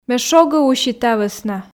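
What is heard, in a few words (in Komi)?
ме шогӧ уси та вӧсна, Komi, female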